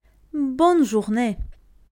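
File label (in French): "bonne journée", French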